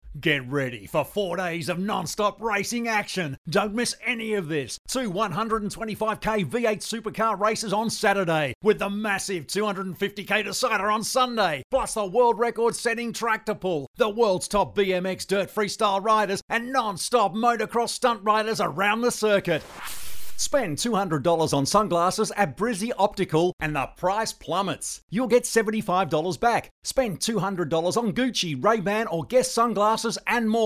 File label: Hard Sell